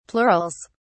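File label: "plurals", British English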